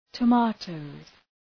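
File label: "tomatoes", English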